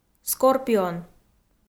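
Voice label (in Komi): "скорпион", Komi, female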